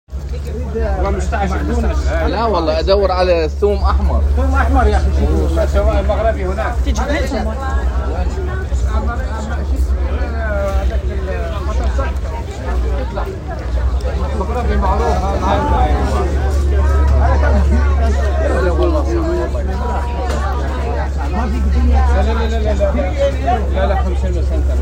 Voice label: People talking in Arabic at the market, Rotterdam Field Recordings